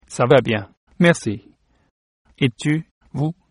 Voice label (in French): how are you, fr